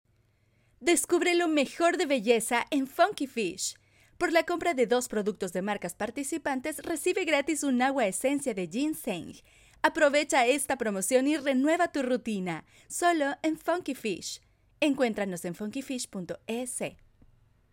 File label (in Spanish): promo; funky mayo; belleza ginseng